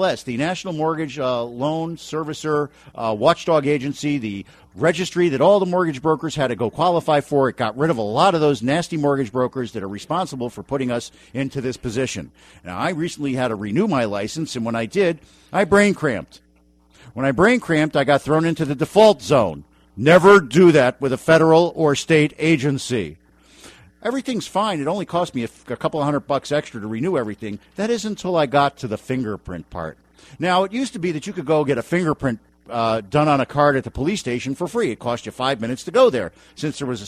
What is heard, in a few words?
rant; electronic fingerprints